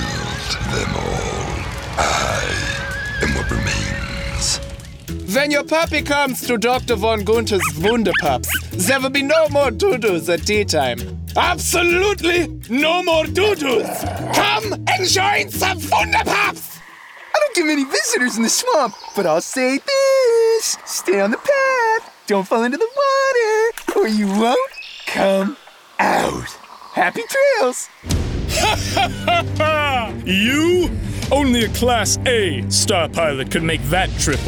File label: Animation, Voice Over